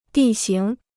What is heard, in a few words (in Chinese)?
"地形", Chinese